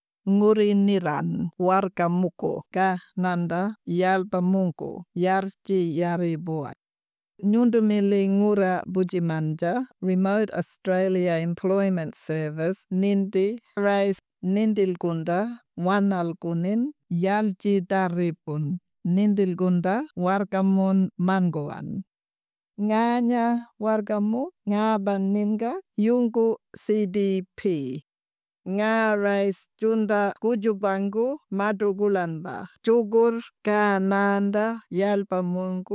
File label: Audio message Martu Wangka; RAES